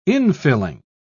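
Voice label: "infilling", British English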